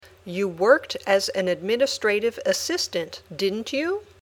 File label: Dictation